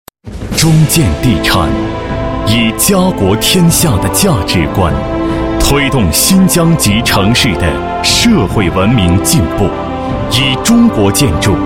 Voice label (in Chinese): 男 地产, 深沉大气; 中建地产